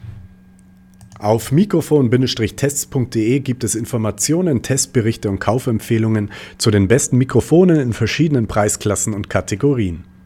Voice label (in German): mikrofon test yeti blue popschutz